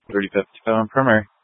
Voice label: Police Department Dispatch, scanner; Grand Junction

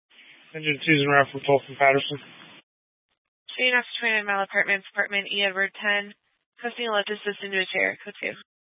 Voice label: Grand Junction Fire Department Dispatch

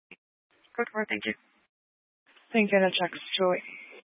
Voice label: Sheriff Dispatch, scanner; Mesa County

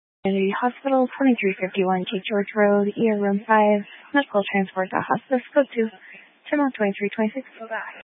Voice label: Grand Junction Fire Department Dispatch

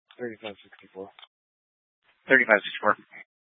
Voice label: Police Dispatch; Police 1 Dispatch

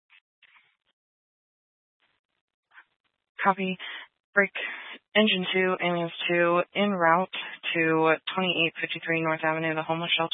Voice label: Fire Department Dispatch, scanner

